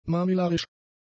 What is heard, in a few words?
"mamillarisch", German; adjectives